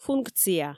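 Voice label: "функция", Komi, female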